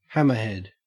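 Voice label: "hammerhead" Australian English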